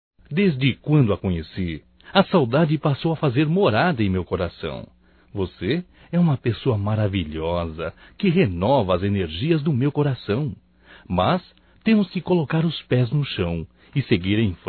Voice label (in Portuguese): SAUDADES ROMâNTICA (M)